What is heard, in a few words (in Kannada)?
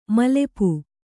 "ಮೈಲಾಪ", Kannada